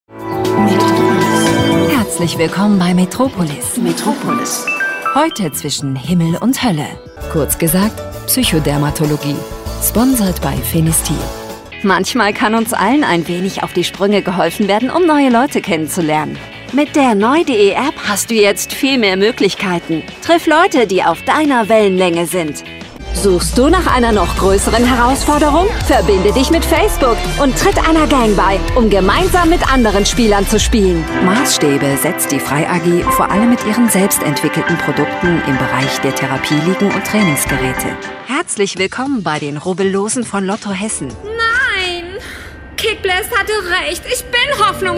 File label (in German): Genre verschiedene Sprechstile warm charmant bis frisch jung